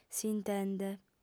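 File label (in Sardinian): "s'intende", female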